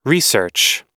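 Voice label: "research", US English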